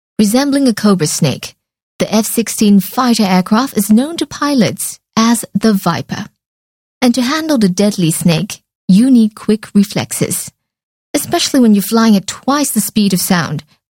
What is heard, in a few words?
Asian English documentary